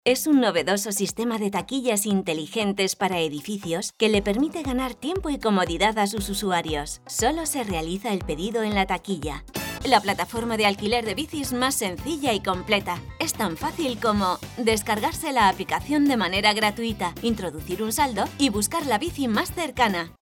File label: Spanish Spain